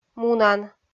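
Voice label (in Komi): "мунан", Komi, female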